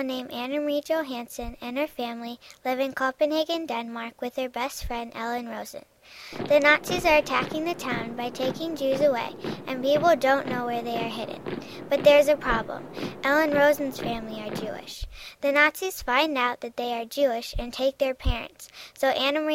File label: Book Chatter; library media center